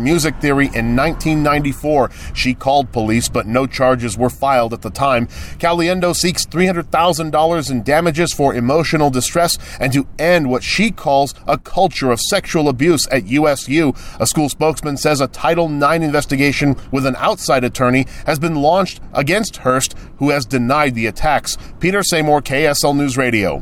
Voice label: USU LAWSUIT VOICE PSA